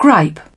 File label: "gripe", British